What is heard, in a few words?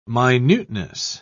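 "minuteness", British English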